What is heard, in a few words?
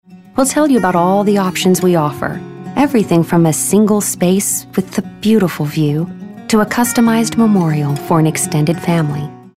caring